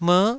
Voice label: "mer9", taiwanese